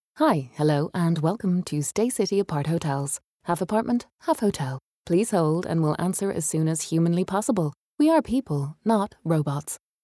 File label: english irish ivr; dubladora especialista e multilíngue